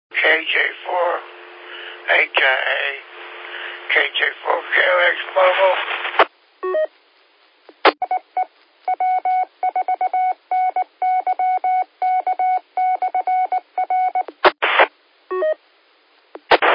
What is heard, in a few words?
qso rec repeater logic